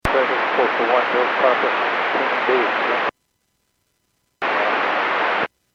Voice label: Airports 122.7, Radio; KSTE